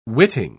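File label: "witting", English, British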